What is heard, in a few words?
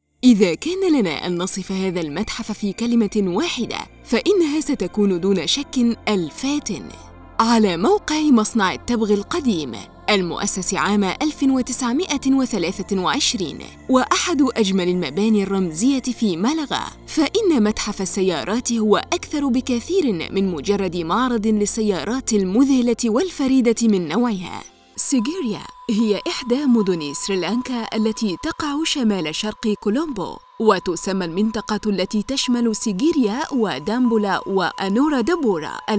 arabic documentaries